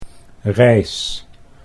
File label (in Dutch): "reis", Dutch